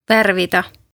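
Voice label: "Värvida" Estonian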